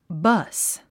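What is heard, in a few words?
"bus", English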